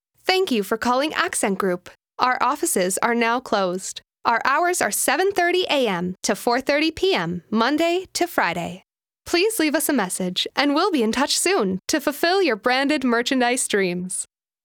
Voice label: Accent Group